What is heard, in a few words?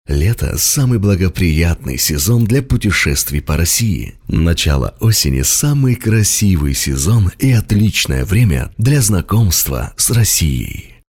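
Russian Russia male character; russian voice over talent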